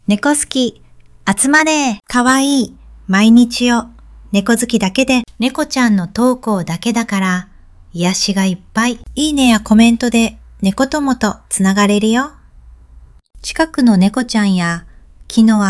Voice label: narration